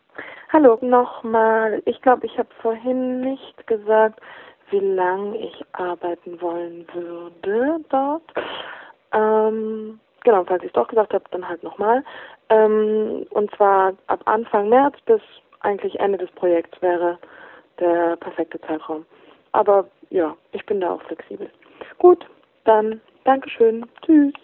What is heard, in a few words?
Voicemail